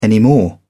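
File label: "anymore", British English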